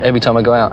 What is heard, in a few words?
Mystery Voice, Voice Clip